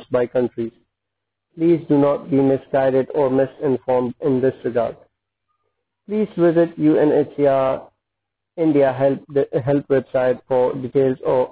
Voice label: Resettlement message Pashto